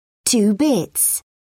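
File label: "two bits", English, British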